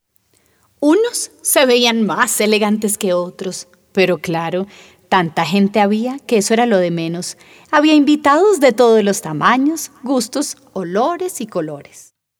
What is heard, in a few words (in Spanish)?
Narración; Ya Vienen los Reyes Magos